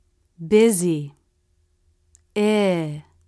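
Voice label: "busy", English, Short i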